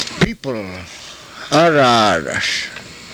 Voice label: "araaras", karuk